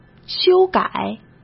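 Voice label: "修改", Mandarin Chinese; chinese-flashcards; hsk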